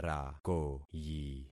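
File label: "rakoyi", concatenated syllables; Affixing experiment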